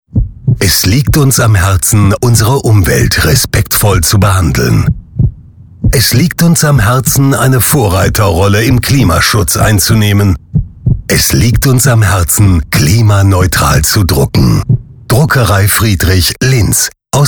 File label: Spot Radio; Life Radio